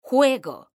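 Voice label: "juego", Spanish, female; mekanta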